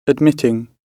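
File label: "admitting", British English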